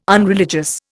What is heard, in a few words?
"unreligious", Indian